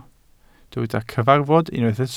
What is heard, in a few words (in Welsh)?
"cyfarfod" Welsh